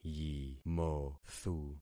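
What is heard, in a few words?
"yimothu", concatenated syllables; Affixing experiment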